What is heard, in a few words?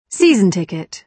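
"season ticket", British English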